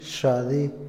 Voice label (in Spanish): predicar, Otomí, hombre; INALI